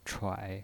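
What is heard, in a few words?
"chuai", Mandarin Chinese pinyin voice, third tone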